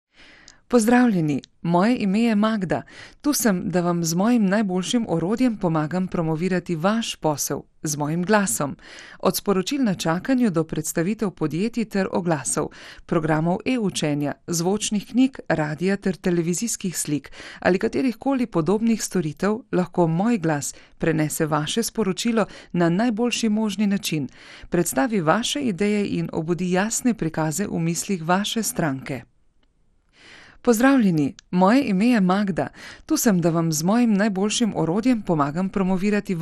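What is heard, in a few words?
slovenian female narration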